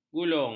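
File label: "gulung", Malay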